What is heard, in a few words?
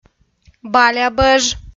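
"балябӧж", Komi-Zyrian, female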